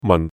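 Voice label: "mong4" Cantonese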